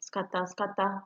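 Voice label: "scatter scatter", Nigerian Pidgin, female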